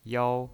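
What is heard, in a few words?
"yao" Mandarin Chinese pinyin voice, first tone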